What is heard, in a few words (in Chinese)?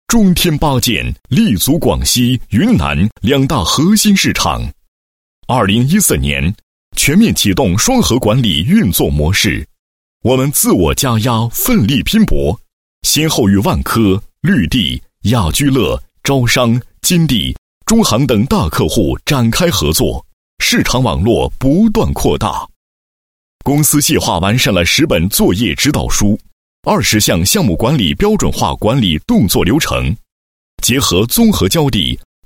男 企业; 项目管理标准化建设 中天八建